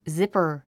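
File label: "zipper", English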